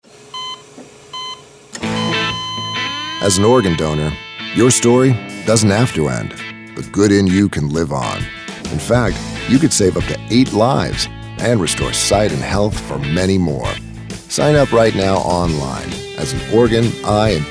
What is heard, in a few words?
radio; Good In You Radio - 30 second - English; organ-donor